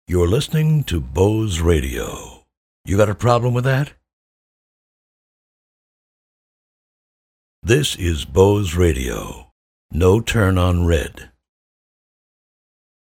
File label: radio sweepers